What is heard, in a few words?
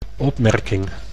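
"opmerking", Dutch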